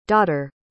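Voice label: "Daughter", English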